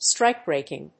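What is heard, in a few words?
"strikebreaking", English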